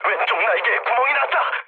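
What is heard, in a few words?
Korean left wing mood low; speech; War Thunder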